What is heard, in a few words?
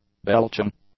"belching", English; nouns